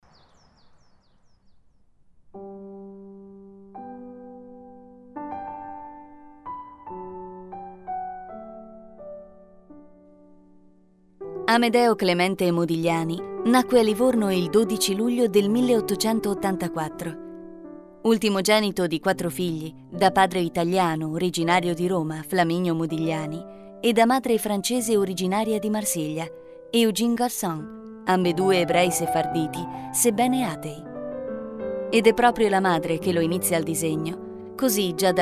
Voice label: DOCUMENTARY

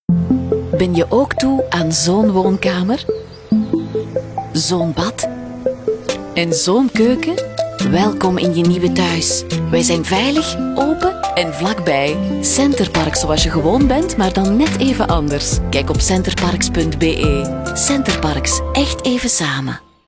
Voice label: TVC friendly warm; Center Parcs

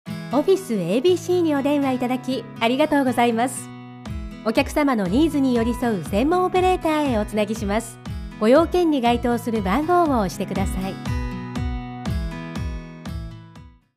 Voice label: Corporate Telephone

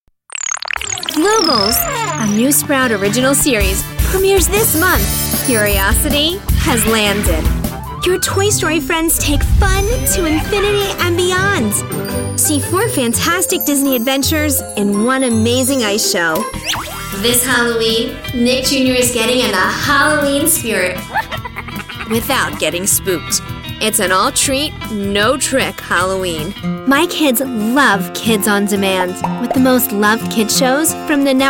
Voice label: Promo

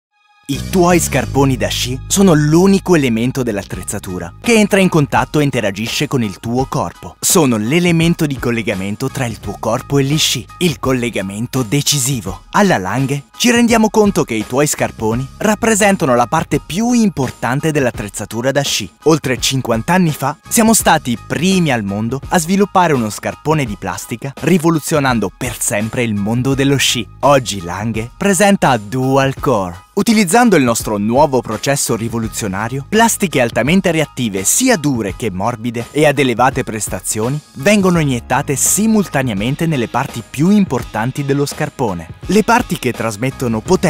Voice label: corporate video Italien

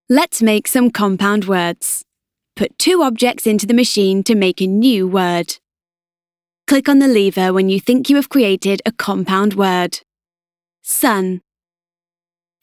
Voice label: Childrens E-Learning

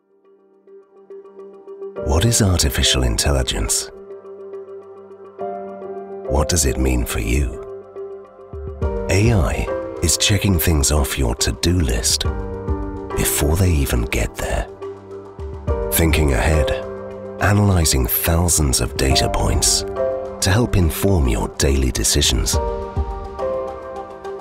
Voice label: Tech Promo

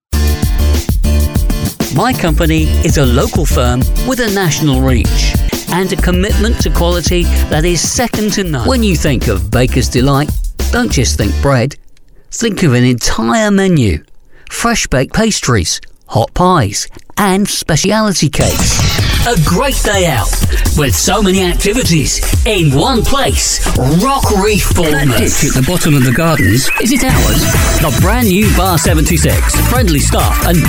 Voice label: Commercial

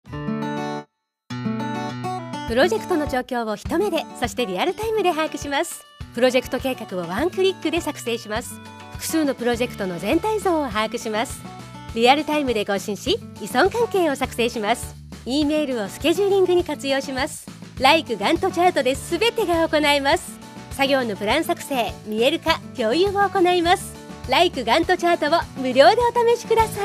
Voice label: Web Commercial

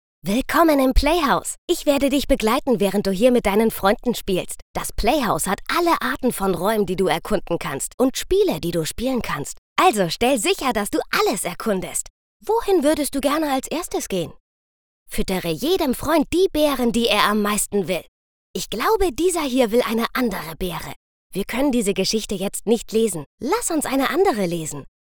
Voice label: Teenager; Pokemon Game

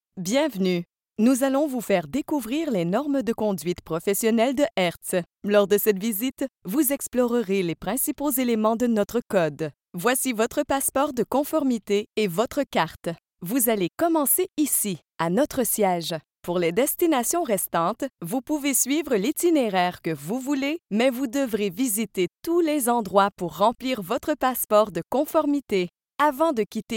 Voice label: E-Learning; Hertz

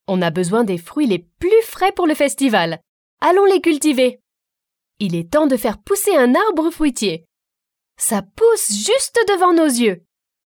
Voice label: French Kids Game App

